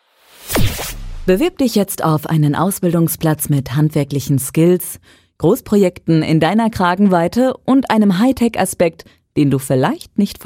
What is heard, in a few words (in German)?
Imagefilm